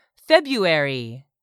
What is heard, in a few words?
"February", English; 3000 words